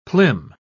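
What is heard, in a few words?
"plim", British English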